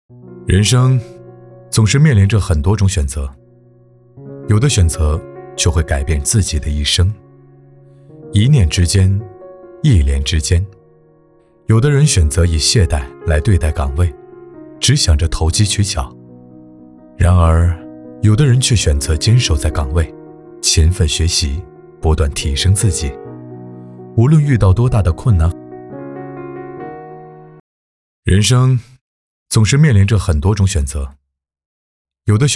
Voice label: 男 旁 走心; 人生

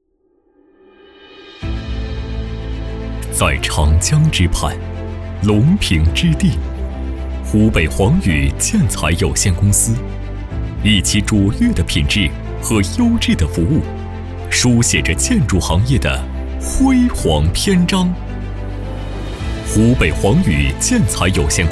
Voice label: 男 企业宣传

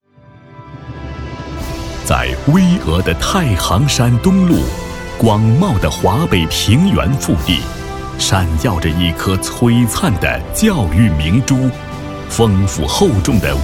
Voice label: 男 宣传片

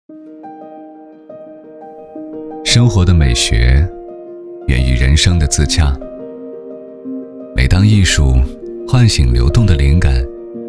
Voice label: 男 独白; 生活的美学

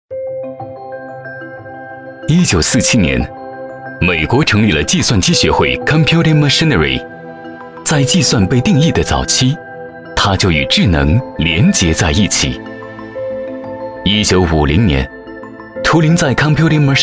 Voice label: 男505 科技感; 智能计算

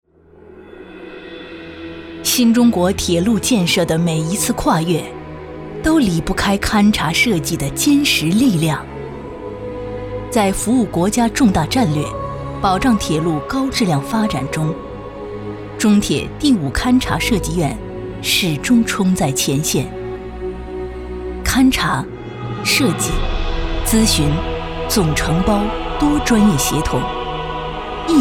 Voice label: 女 汇报 新中国铁路

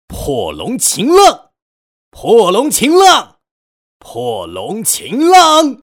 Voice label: 男 游戏 喊招; 游戏CG配音

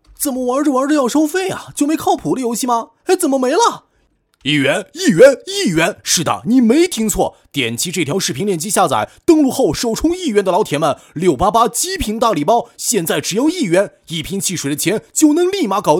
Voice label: 男 信息流

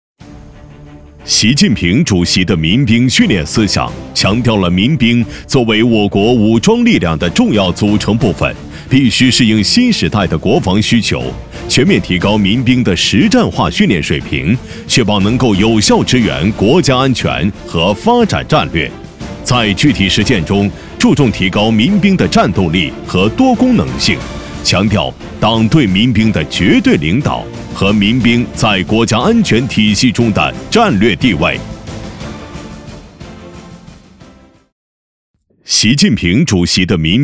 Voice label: 男 专题 铿锵有力

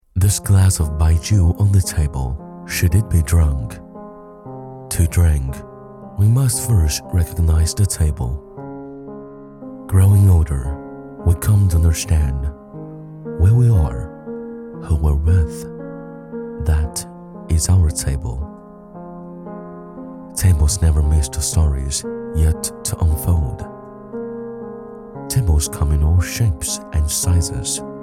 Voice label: 男 英文广告; 五粮液

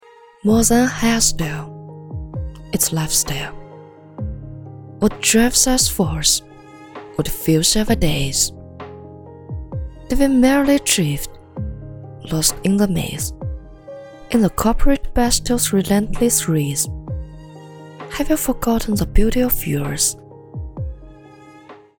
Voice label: 女 英文 宣传

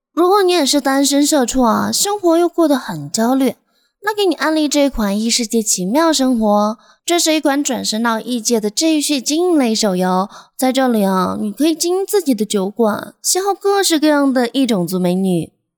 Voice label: 女 信息流 台湾腔

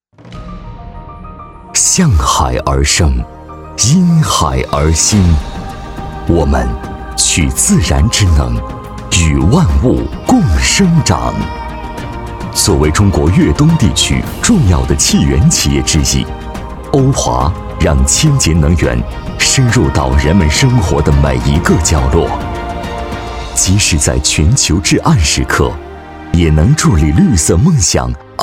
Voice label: 男 企业 大气科技 品质感; 欧华能源

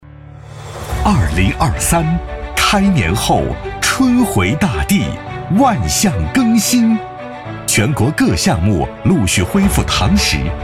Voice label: 男 宣传片 年度记忆 饱满 朝气 热情; 礼信年年

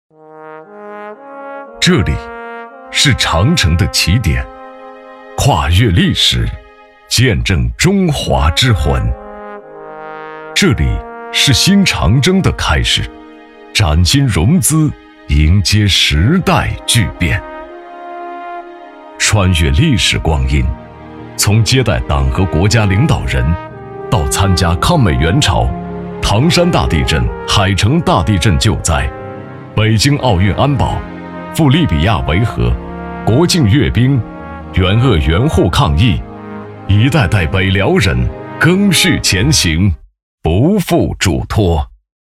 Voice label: 男 专题; 北戴河康复疗养中心

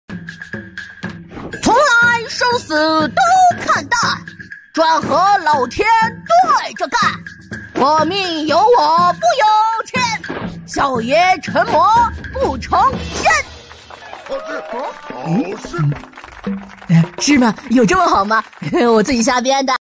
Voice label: 女 角色 模仿; 哪吒

